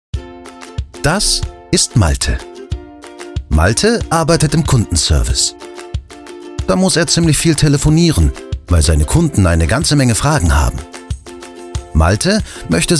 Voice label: Erklärer

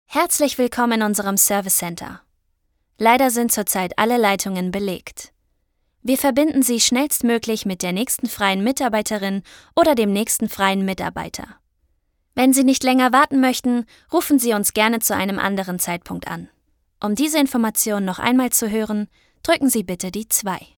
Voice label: Warteschleife, Wait Loop, German; voice

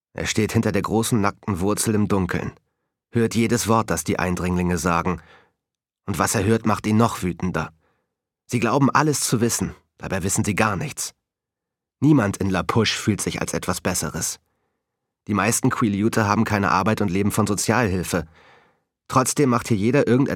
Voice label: hoerbuch; indigosommer

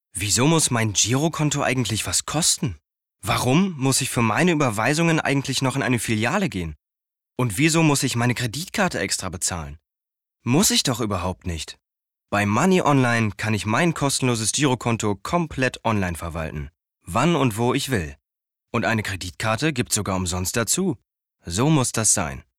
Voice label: Girokonto Werbung; Money Online

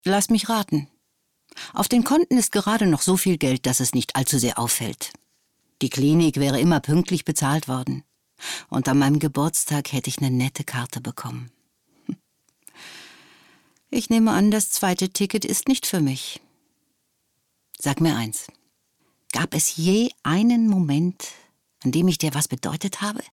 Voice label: synchron

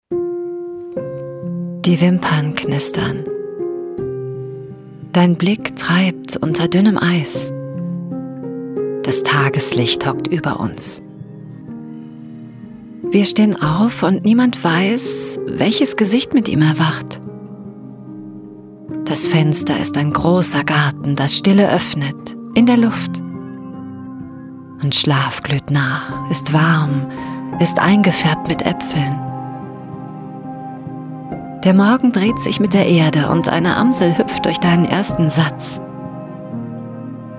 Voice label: Storytelling PROSA weich narrativ; LOFT VOICES

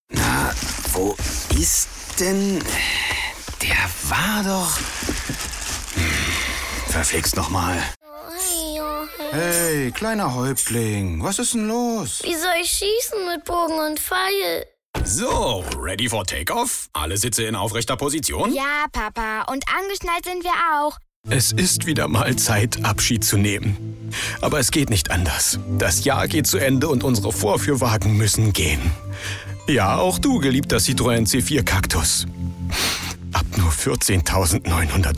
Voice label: Funkspots Rolle Spiel Mix

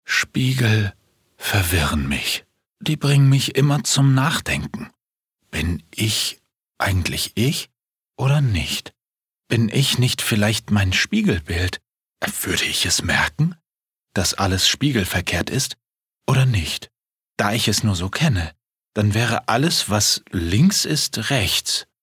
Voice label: Innerer Monolog

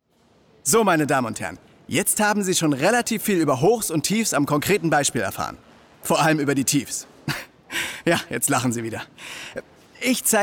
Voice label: moderator; LOFT VOICES

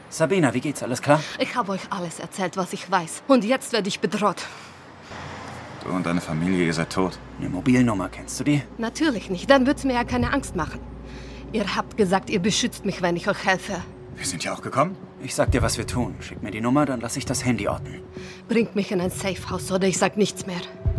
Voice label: Deutsch mit osteuropaeischem Akzent Realfilm

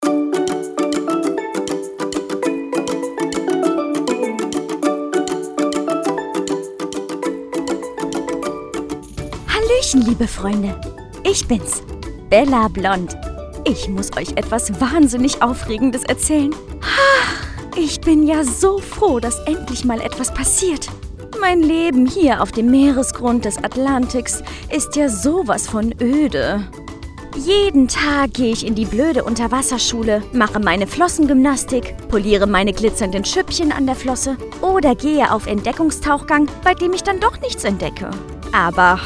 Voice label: Hoerspiel

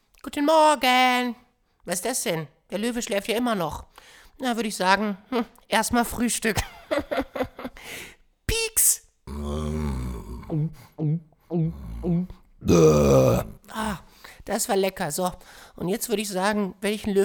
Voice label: comicstimme moskito

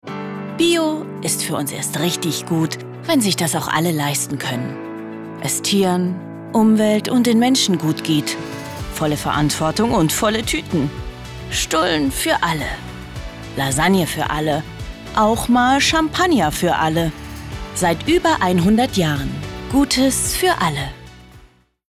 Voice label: Werbung Supermarkt, Commercial (Werbung), German, Schwäbisch; Supermarkt Commercail

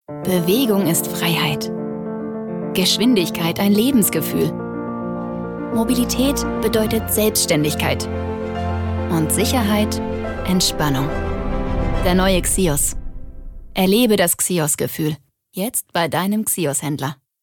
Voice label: Werbung straight

